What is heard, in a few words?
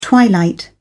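"twilight", English, British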